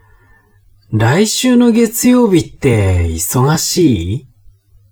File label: man